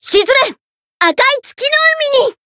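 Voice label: Voice variable chant, Japanese; harle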